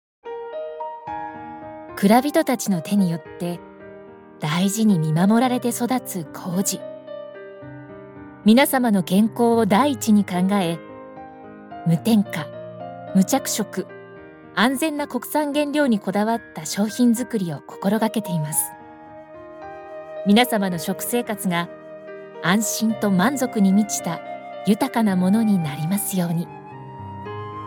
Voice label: Voice friendly